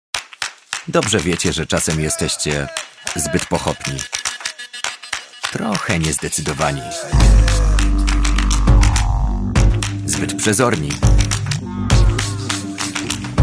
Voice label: polish male commercial